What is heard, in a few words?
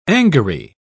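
"angary", British English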